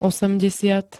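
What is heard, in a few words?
"osemdesiat", Slovak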